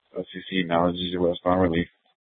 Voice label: Public Transit System Light Rail Ops, scanner; Valley Metro

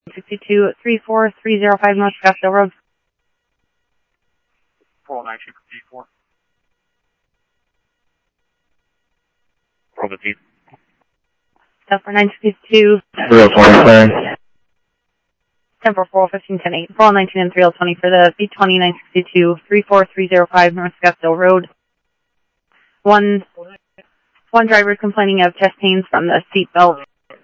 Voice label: PD Police, scanner audio

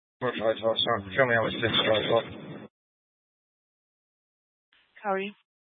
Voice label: Mesa PD Dispatch

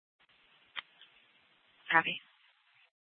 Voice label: Mesa PD Central Dispatch, Scanner

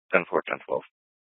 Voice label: Scottsdale PD Sth Dispatch, scanner

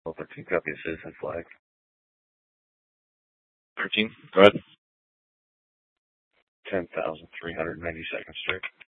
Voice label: Dispatch; Scottsdale

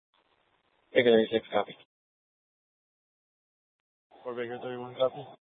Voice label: Mesa PD Central Dispatch, scanner

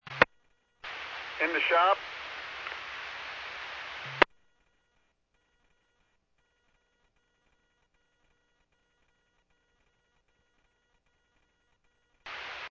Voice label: Medevac, scanner; NativeAirAmb

